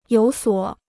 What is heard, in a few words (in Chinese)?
"有所", Chinese